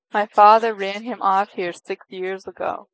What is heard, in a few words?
speech est